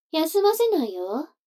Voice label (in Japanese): 女の子台詞